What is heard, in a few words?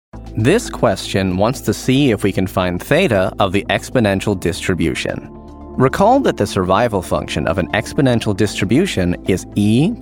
NARRATION